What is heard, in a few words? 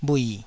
"bhui3", taiwanese Syllables